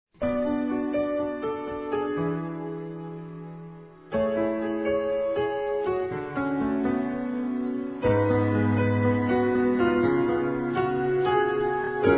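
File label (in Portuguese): aniversário paquera, masc; Telemensagens; Conquistas Cantadas paqueras; Telemensagens 2017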